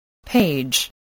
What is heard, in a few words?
"page", English voice